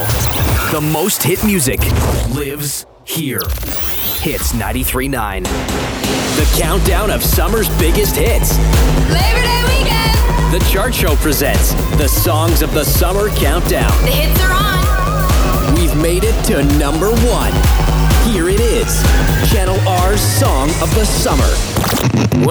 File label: Radio Imaging